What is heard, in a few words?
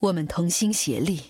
zh-cn; quinn